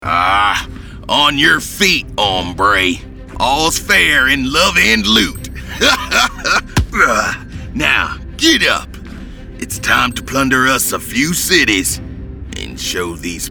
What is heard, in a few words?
animation men interactive